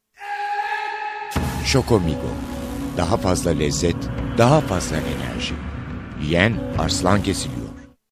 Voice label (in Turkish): reklam ses